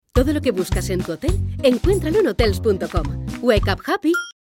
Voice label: radio spot